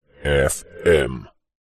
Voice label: radio ident voice male processed says fm